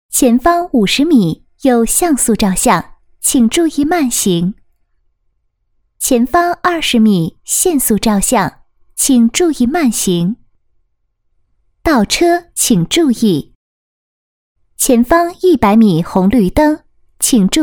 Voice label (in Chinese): 多媒体 广播提示 导航仪 配音, 女国